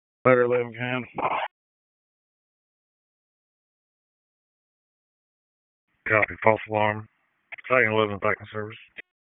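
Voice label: FIRE Operations, p25; FIRE OPS 1; wake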